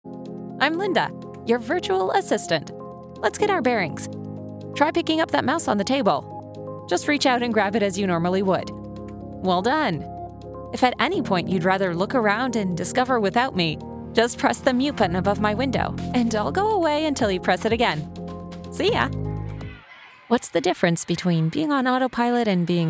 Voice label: elearning